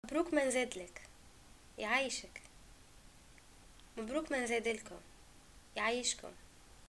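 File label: greeting new baby, Tunisian Arabic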